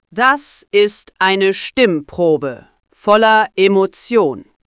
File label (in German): Befehlend, stimmen; moods